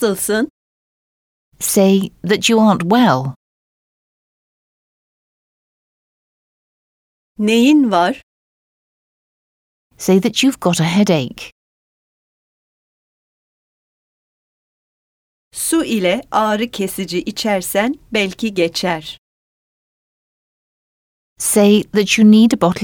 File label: Speaking, Turkish; Complete Turkish Teach Yourself